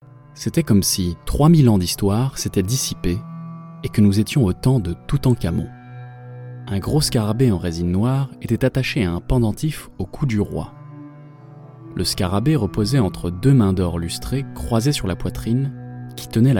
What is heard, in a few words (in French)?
Narration documentaire, FR; Egypte; Arte